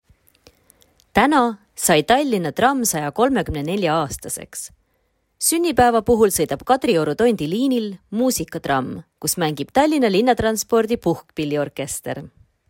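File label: Estonian, VO Sample; GoLocalise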